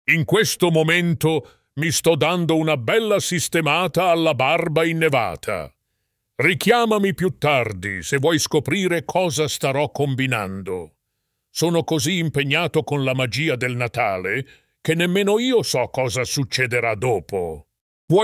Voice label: voicemail, italian